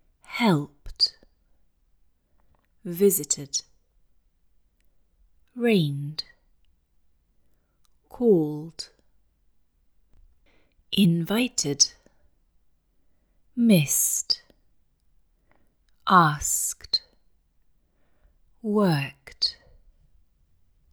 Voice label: Italian, British accent; English Pronunciation Errors made by Italian Speakers